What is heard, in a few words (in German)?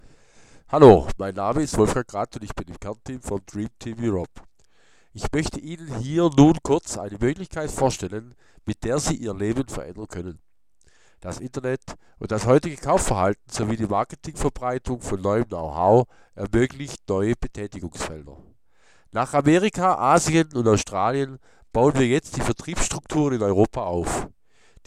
ansage